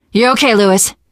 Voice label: revive friend, survivor voice teen girl; Left 4 Dead; Source Engine; video games